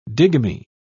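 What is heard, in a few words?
"digamy", en, British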